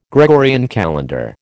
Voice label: "Gregorian calendar", American